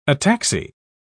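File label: "ataxy", English, uk British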